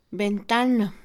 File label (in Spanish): ventana, Mixe, Mujer; Casa; INALI